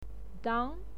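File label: "dang", Mandarin Chinese, second tone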